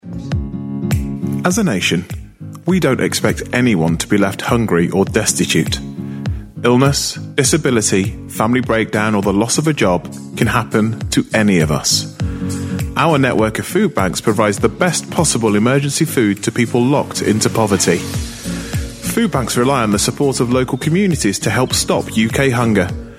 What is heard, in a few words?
commercial